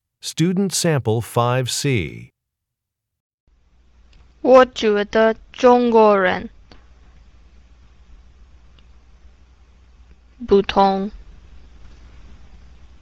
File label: chinese conversation